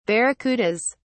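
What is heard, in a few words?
"barracudas", British English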